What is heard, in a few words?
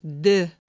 "dE", Iron